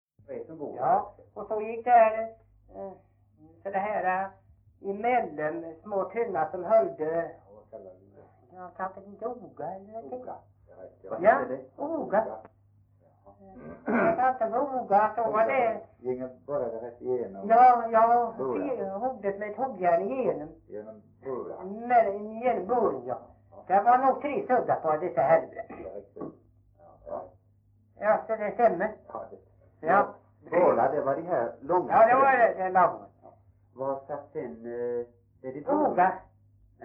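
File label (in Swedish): Veddige Halland, Svenska sagor dialekter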